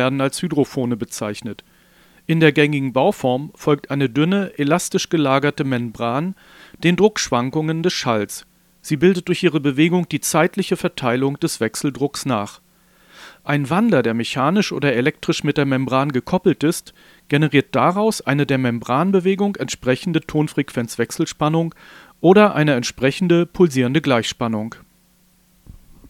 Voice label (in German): DDR-Mikro dynamisch schwarz mit Windschutz; Probeaufnahme; Mikrofon